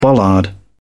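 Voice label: "bollard", British English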